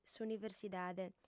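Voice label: "s'universidade", Sardinian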